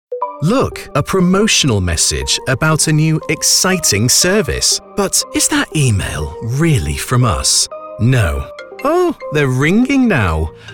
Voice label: English Regional M AD; accents of england